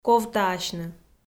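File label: "ковтаасьны" Komi, female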